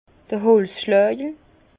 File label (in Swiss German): "holzschlagel", Bas Rhin; vin; mailloche maillet